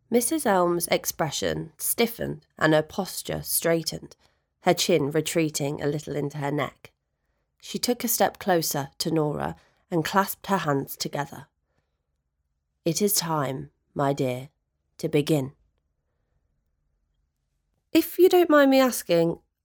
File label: Narration Voice